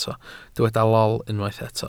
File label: "lol", Welsh